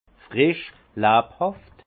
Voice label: "frisch", Alsace; vin; vif

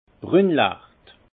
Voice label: "brunlacht", alsace; vin

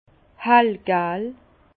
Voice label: "hellgal", Bas Rhin; jaune pâle; vin

